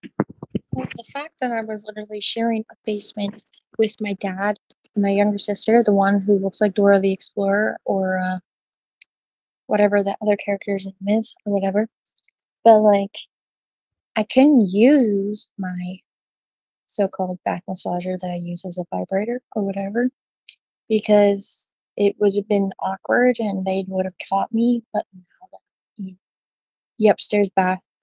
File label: voice message